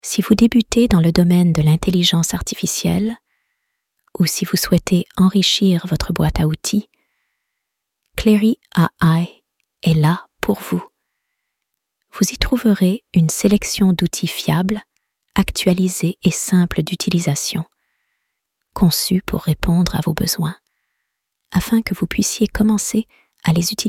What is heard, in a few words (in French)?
Voix sensuelle; clairy ai